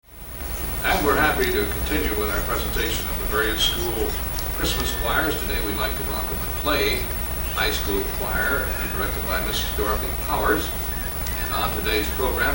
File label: Announcer; Afternoon Show